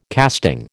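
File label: "casting", American English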